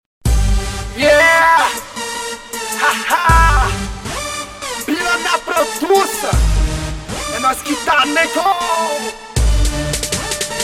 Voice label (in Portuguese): rap; quem esta comigo